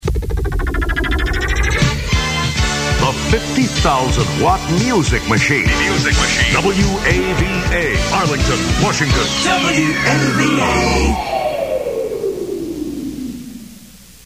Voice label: legal id with jingle; wava